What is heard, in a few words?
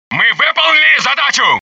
russian success; dialogue; mohaa